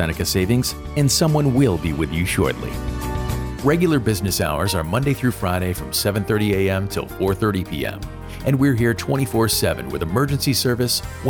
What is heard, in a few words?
ON HOLD